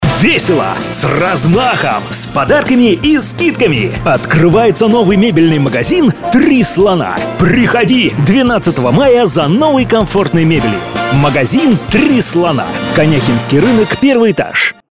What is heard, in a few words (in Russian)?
Три слона мужской